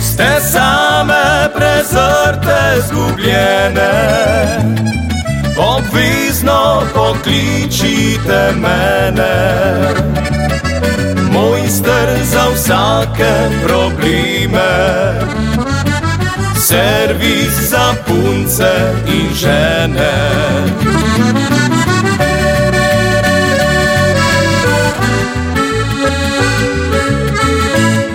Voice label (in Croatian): poslovni oglas